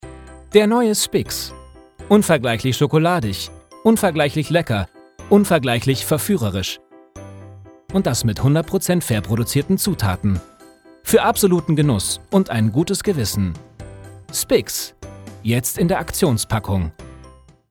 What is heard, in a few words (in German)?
werbung